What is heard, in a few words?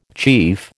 "chief", American